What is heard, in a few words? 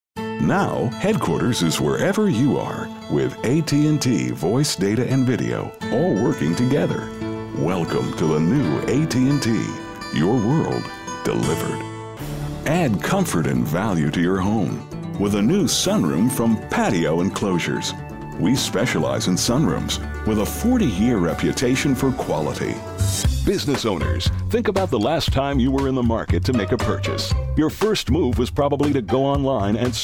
Higher Volume Male Commercials